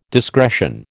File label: "discretion", American